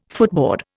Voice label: "footboard", Indian

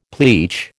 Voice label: "pleach", American

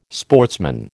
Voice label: "sportsman", American

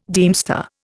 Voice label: "deemster", Indian

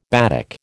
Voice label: "batik", American

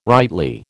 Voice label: "rightly", American

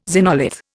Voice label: "xenolith", Indian

